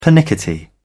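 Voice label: "pernickety", British English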